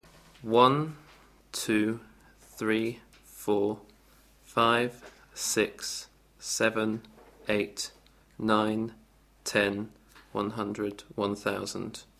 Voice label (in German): Englisch